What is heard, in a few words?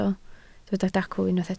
"dacw", Welsh